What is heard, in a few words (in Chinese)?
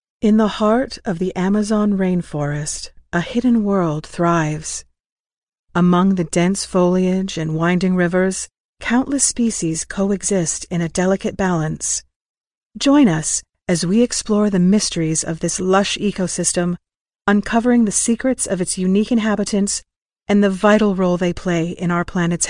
美式女声